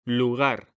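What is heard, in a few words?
"lugar", Spanish, male; mekanta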